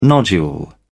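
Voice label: "nodule", British English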